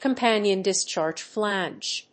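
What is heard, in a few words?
"companion discharge flange", English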